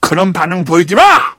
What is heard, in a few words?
sniper jeers